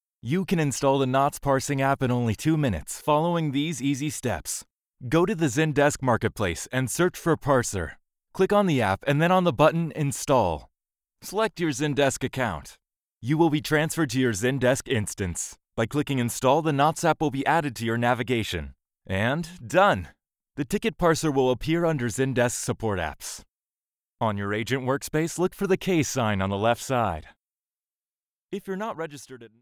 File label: elearning sample